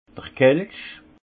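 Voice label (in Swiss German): "kelsch", Haut Rhin; vin; coupe en calice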